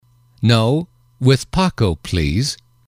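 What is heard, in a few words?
"no with X please", English, male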